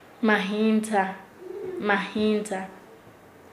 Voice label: "peon", Nasa Yuwe; paez